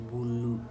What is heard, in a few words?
"bullu", Andamanese; jelly fish